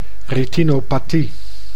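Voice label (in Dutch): "retinopathie", Dutch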